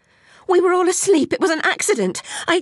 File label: sorry, voice commands; svencoop; Alice